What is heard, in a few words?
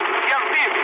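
Russian aircraft destroyed mood low, speech; War Thunder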